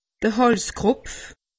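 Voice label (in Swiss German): "halskropf", Alsatian; medecine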